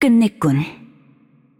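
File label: voice over, Korean; Game Outro; bloom minigame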